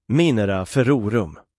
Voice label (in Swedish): uttal minera ferrorum